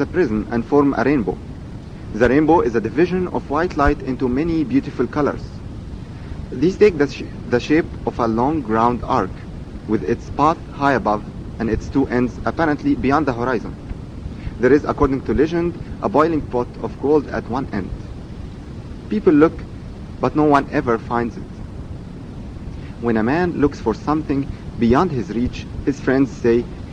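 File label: egypt, International Dialects of English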